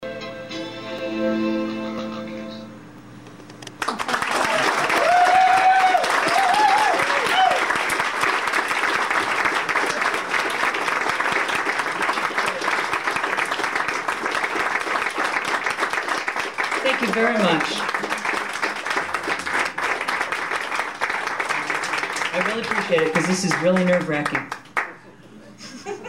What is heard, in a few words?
Live Patter; Tin Angel